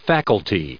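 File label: "faculty", US English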